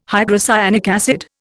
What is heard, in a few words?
"hydrocyanic acid", Indian